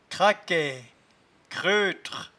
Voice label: "craquaiue", patois jura

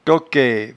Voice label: "toquaie", patois jura

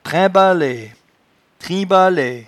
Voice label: "trimbalaie", patois jura

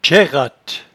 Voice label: "tchairratte", patois jura

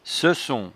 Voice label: "seucon", patois jura

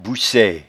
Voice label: "boussaie", patois jura

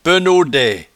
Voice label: "penaudaie", patois jura

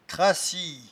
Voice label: "crassie", patois jura

